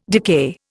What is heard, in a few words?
"decay", Indian